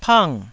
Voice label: "pang4", taiwanese